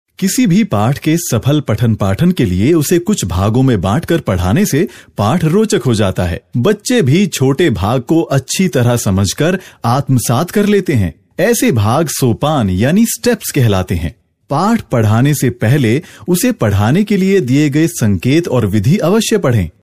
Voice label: hindi voiceover locutor hindi india; locutor indio; locutor de la India